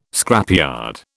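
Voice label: "scrapyard", British English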